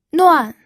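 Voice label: "nuan", fourth tone, pinyin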